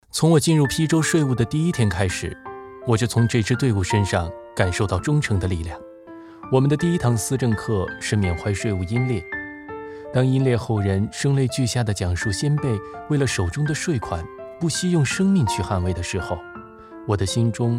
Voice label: 男 独白 素人感讲述; 邳州税务

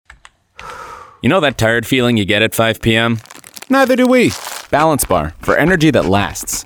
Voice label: 能量棒广告

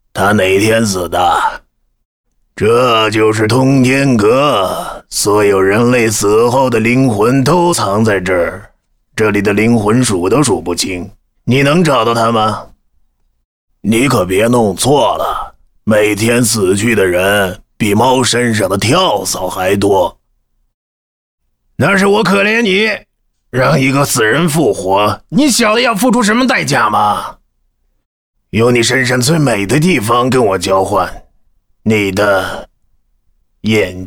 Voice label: 男 模仿 老年巫术 灵婆片段; 大海棠灵婆片段

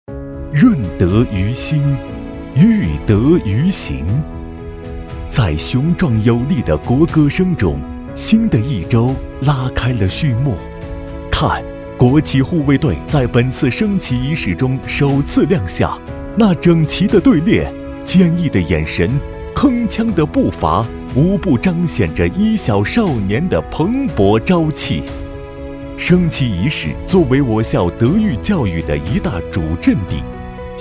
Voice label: 男 宣传片 学校宣传

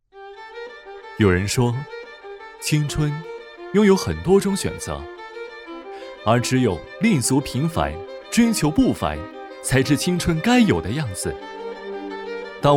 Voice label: 男 年轻讲述; 社区青年汇

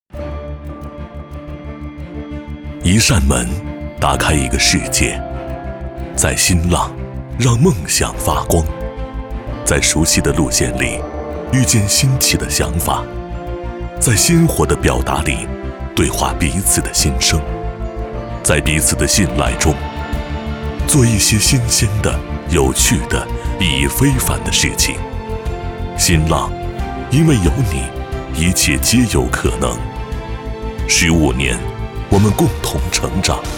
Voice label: 男 走心 品质走心; 新浪十五周年

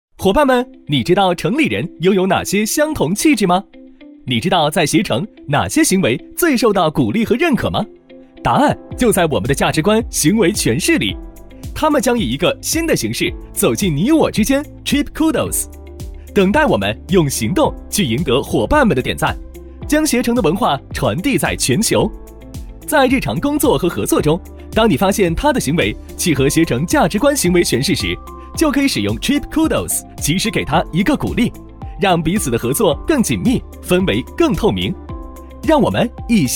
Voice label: 男, MG; 携程

